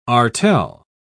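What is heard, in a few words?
"artel", British English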